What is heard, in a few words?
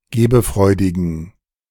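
"gebefreudigen", German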